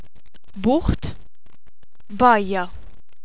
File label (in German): bucht, Maltesisch; ortsbezeichnungen